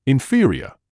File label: "inferior", English